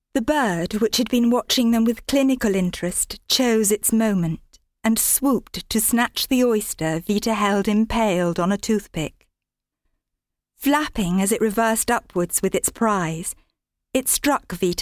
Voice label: narrative